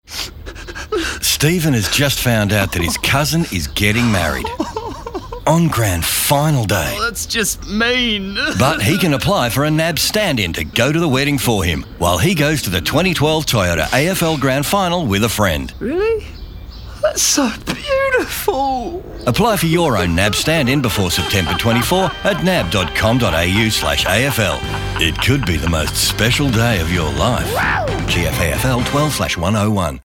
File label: radio; NAB; Wedding; nab introduces the stand ins for grand final day